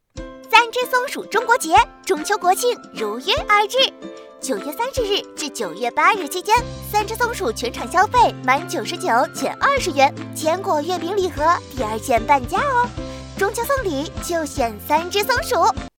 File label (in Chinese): 促销广告活力